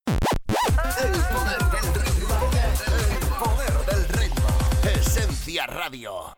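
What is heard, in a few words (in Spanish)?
otros España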